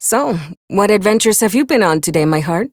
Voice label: Ambient Barks, en; Warframe; Mall Romance; Lettie